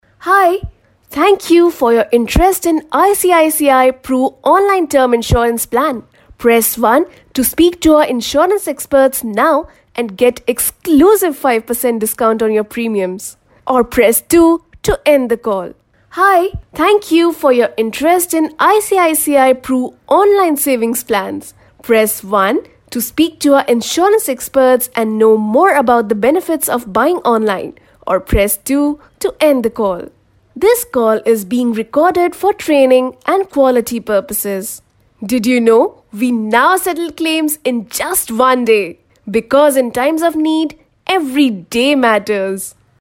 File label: IVR, English